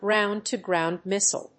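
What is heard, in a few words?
"ground-to-ground missile", English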